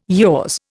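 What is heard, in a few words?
"yours", Indian English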